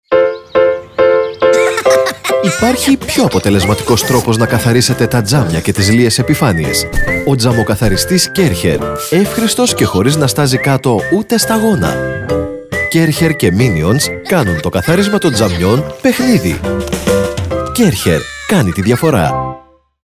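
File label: Greek General; GoLocalise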